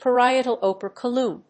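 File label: "Parietal operculum", English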